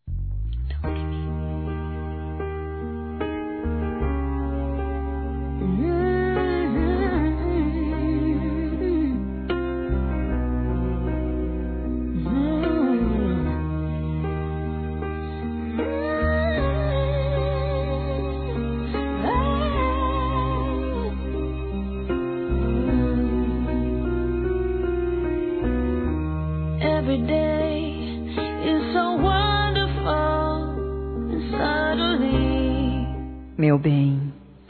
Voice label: Perdão Romântico Feminino, Telemensagens; Reconciliação

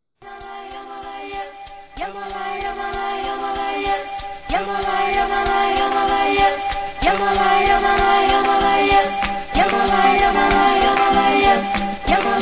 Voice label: RECONCILIAÇÃO F

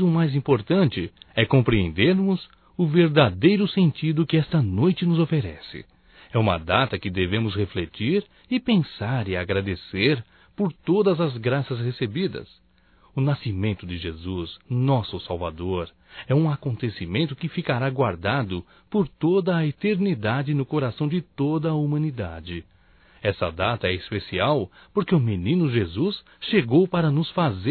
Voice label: Romântico Masculino, Natal; Telemensagens 2017; Natal & Ano Novo

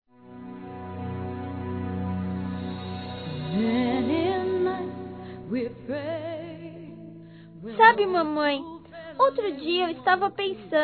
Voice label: DIA DAS MÃES VOZ INFANTIL M; Masc; Telemensagens 2017

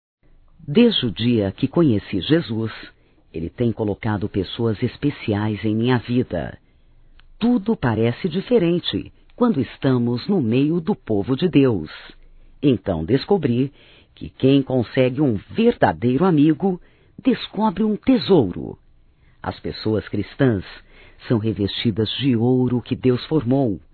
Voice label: Amizade Feminino, Telemensagens, Evangelico; Telemensagens 2017

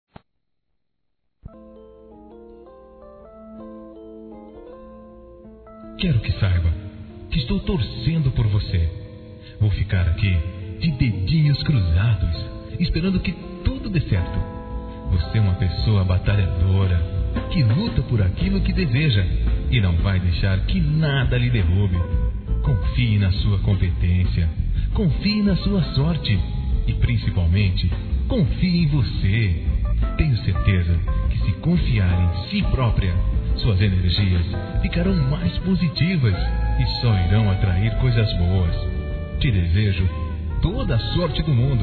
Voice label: OTIMISMO P MULHER M; Telemensagens; masc

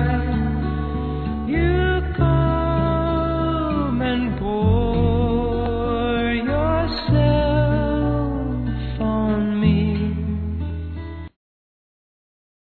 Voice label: ANIV. VOVÓ-F, Telemensagens; Aniversário Infantil

